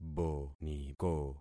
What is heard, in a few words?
"boniko", concat syllables; Affixing experiment